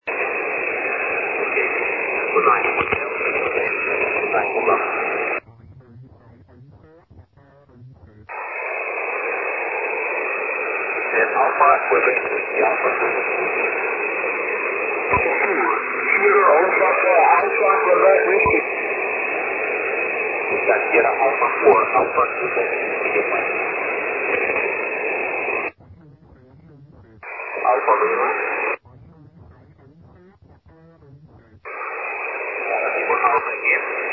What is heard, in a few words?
6m ssb